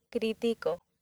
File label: "critico", accent; laboratori fonetica; practiques accent